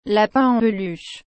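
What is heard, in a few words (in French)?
"lapin en peluche", French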